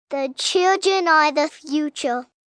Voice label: Children Are Futur, Sayings, 1 child, 5 yrs